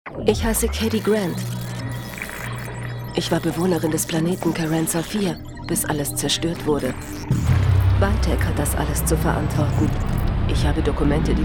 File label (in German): Hoerspiel Trailer; DTV